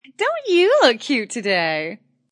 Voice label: Wmn Sys Dnt U Lk Ct Anm, Woman Says Don't You Look Cute Animated; Talking Sound Effects